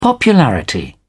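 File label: "popularity", British English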